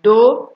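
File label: "doo", Nigerian Pidgin, female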